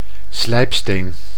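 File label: "slijpsteen", Dutch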